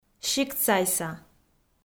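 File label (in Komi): "сиктсайса", Komi, female; kpv audio dict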